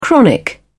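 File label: "chronic", British English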